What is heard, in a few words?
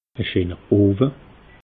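"scheener owe", Alsatian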